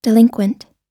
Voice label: "delinquent", us

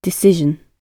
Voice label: "decision", gb

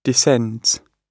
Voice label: "descends", gb

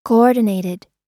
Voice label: "coordinated", us

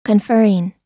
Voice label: conferring, us

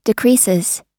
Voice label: "decreases", us

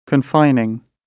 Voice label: confining, gb

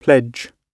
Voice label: "pledge", GB

